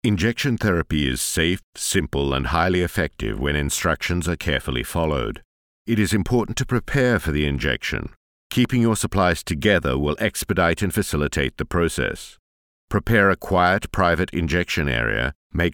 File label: eLearning